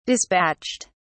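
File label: "despatched", British English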